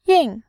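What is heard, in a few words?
"yin", Mandarin Chinese, tone 4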